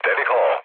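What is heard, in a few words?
Serbian enemy detected mood low; War Thunder; speech srb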